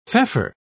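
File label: "feoffer", English, British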